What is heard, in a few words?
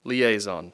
"liaison", English; IEI; Speech Pro